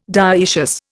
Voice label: "dioecious", Indian